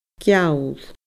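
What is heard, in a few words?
"geall", Scottish Gaelic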